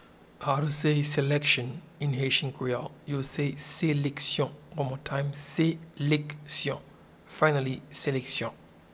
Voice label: Selection in Haitian Creole Seleksyon